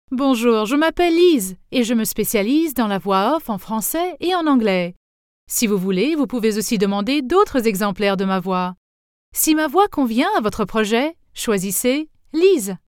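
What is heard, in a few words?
French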